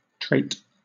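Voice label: "trait", British English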